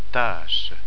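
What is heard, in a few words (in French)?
"taache", French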